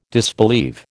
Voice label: "disbelieve", American

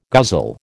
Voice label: "guzzle", American

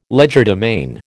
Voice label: "legerdemain", American

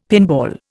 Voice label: "pinball", Indian; Dictionary

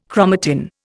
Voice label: "chromatin", Indian

